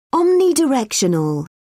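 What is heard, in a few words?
"omnidirectional", English, British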